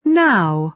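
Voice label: "now", British English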